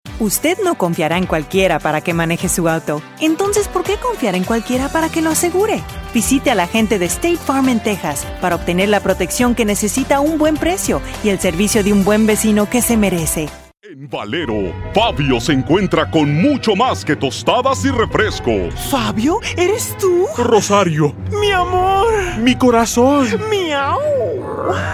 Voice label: spanish females